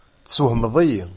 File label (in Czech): berberština